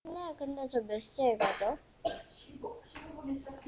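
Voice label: "lead", spoken word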